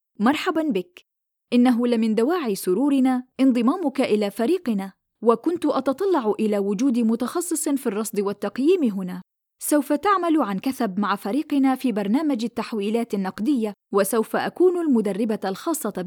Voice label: arabic corporate